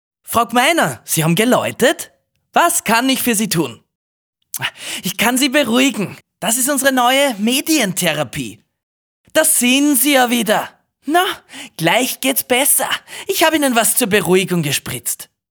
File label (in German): Hoerspiel Medientherapie